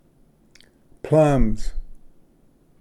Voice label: "ᏆᏄᏂᏍᏗᎢ", Pronunciation; plums

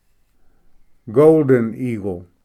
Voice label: golden eagle, en

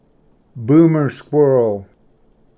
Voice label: "ᏔᎳᏣ", Pronunciation

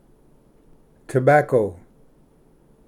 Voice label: "ᏦᎳ", Pronunciation; tobacco

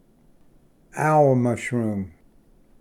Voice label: "ᎤᎫᎫ", Pronunciation; owl-mushroom

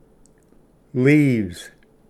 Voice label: "ᏧᎦᎶᎦ", Pronunciation; leaves

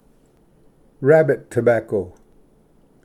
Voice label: "ᎪᏍᎦᏓ", Pronunciation; rabbit-tobacco

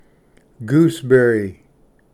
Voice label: "ᏔᎳᏂ", Pronunciation; gooseberry

